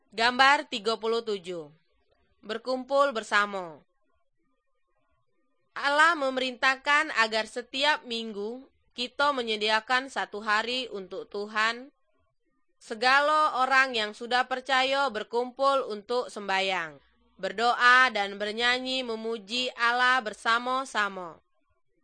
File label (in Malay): good news malay jambi